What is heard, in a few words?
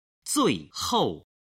"zuihou", Chinese